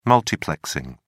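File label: "multiplexing", English, British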